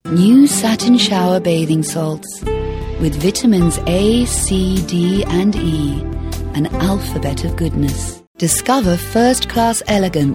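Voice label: British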